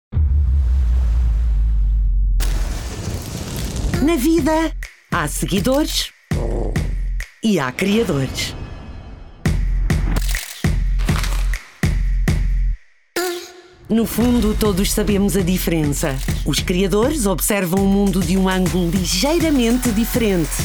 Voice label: Commercial, voice demos; Huawei